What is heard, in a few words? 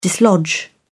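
"dislodge", English, British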